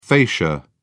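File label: "fascia", British English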